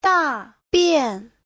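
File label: "dàbiàn", Mandarin Chinese; Giáo trình Hán ngữ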